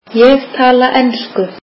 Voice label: icelandic I speak English